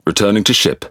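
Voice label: Pirate Speech Pilots Fighter Land, English pirate; World Of Warships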